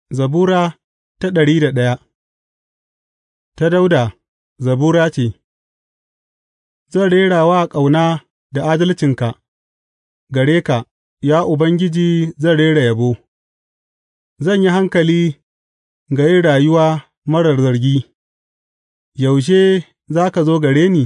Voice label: hausa bible drama, psalms; hausa bsn nt drama